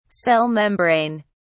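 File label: "cell membrane", British English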